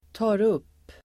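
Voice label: "tar upp", Swedish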